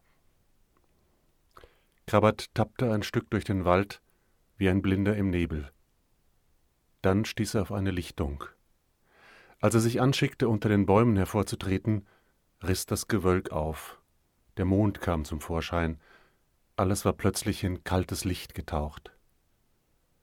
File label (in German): erzaehltext; krabat